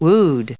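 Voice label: "wood", English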